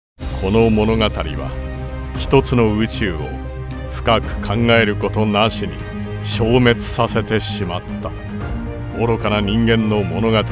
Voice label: japanese movie trailers